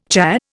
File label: "cha", Indian